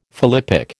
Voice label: "philippic", American

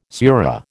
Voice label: "surah", American

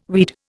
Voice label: "weed", Indian

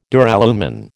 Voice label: "Duralumin", American

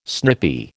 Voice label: "snippy", American

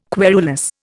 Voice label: "querulous", Indian

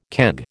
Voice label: "keg", American

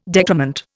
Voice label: "decrement", Indian

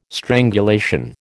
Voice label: "strangulation", American

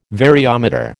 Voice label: "variometer", American

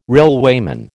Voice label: "railwayman", American

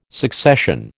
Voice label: "succession", American

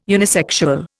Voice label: "unisexual", Indian

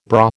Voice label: "bra", American

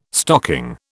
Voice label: "stocking", British

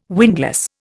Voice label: "windlass", Indian

